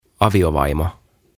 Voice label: "Aviovaimo" "Wife"

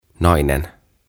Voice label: "Nainen" Woman; OTAVA KONSERNI

